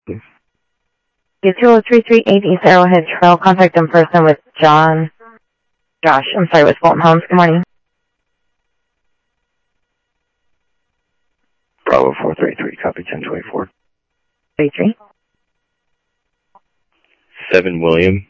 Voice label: Sheriff scanner audio

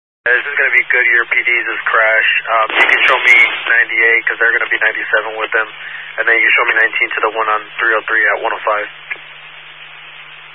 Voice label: Highway Patrol, Phoenix Metro West Area, scanner

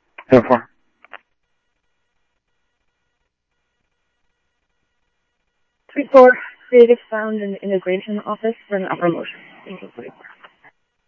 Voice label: Police, scanner; H-Scottsdale; ScottsdalePolice

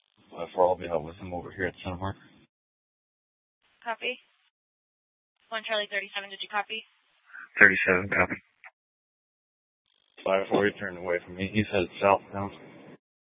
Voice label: Mesa PD Central Dispatch, scanner; TOPAZ Regional Wireless Cooperative (TRWC) - Mesa Simulcast

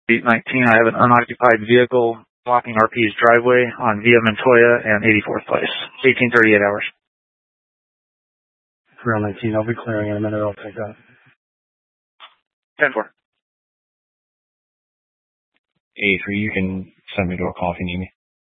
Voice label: Scottsdale Police, Simulcast

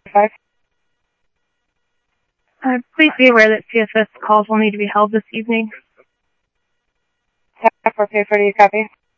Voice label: Scottsdale Police, scanner audio; H-Scottsdale; SCTSDLE PD - PD1

